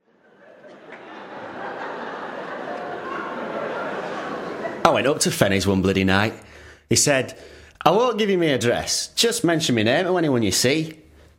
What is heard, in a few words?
LEEDS NORTHERN ACCENT